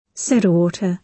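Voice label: "soda water", British English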